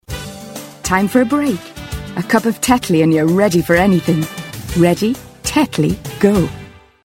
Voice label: Commercial; Tetley

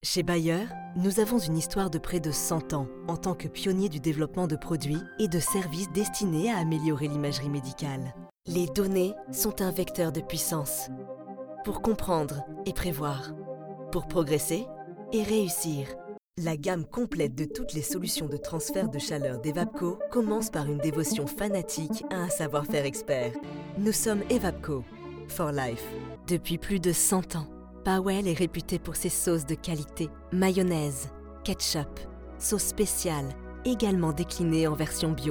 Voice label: French Corporate

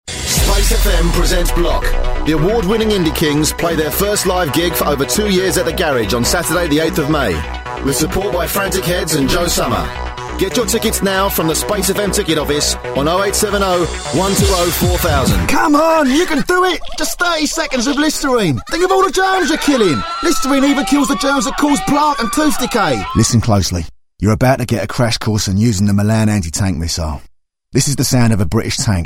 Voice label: Commercials; Vocal Point

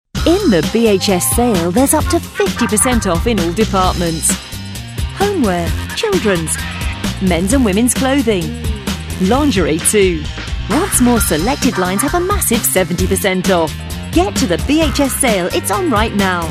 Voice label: Commercial; Bhs; Vocal Point

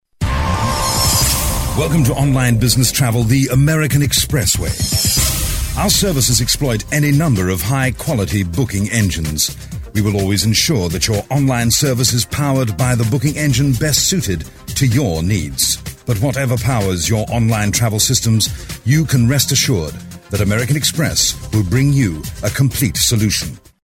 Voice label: Corporate; American Express

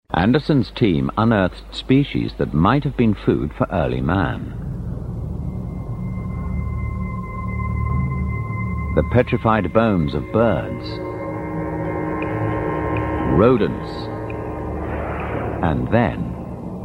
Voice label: Docu Narration

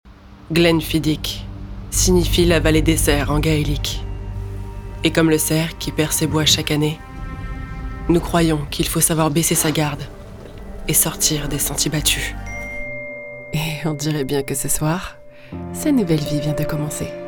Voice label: French Commercials; Vocal Point

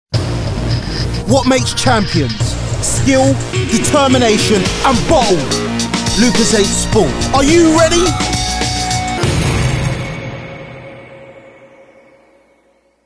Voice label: Commercial; Lucozade; Vocal Point

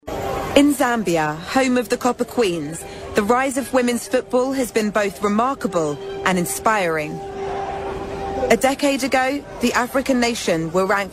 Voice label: Narration; Zambia Copper Queens

